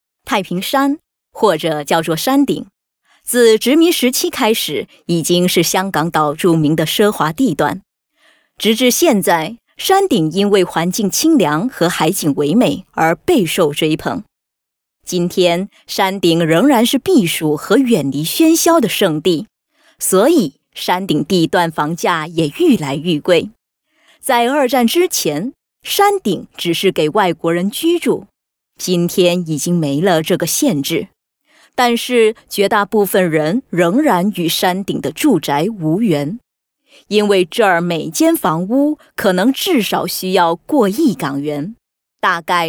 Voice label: Chinese Mandarin Female

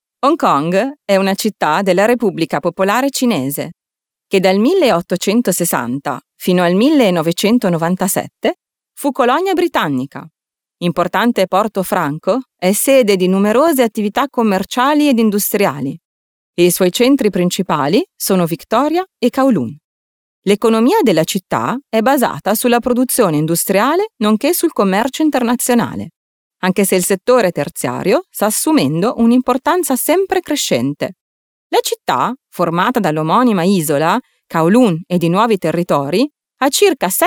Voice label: Female Italian Other Projects

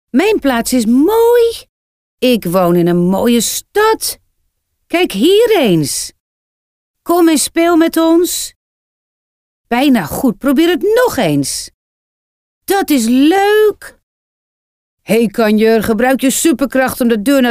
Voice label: NL fem children, test audio

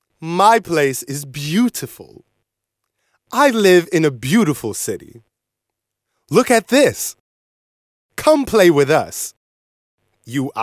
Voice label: US male children

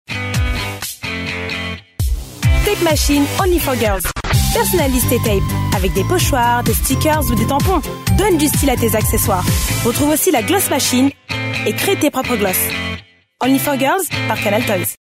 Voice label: FR fem TVC

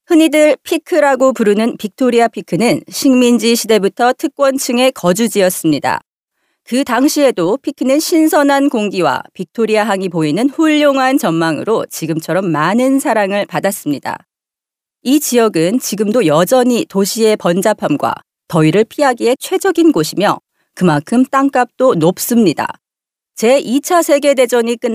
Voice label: Female Korean Other Projects

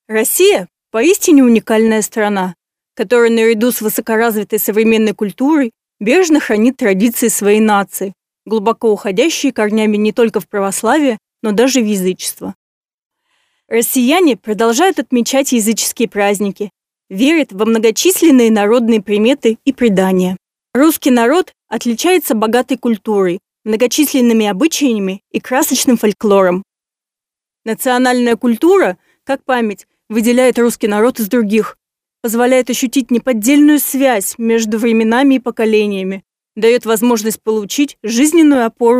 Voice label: Female Russian Other Projects

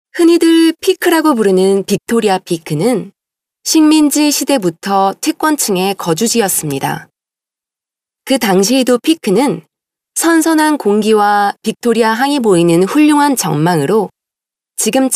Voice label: Female Korean Other Projects

